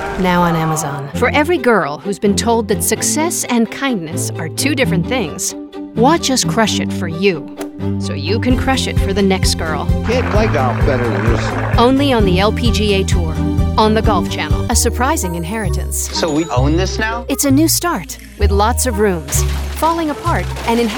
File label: promo women